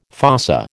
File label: "fossa", American